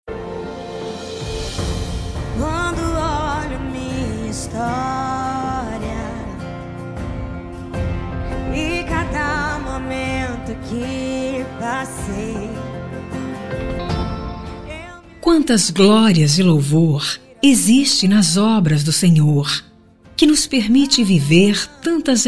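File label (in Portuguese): Aniversário Irmão Evangélico Feminino, telemensagem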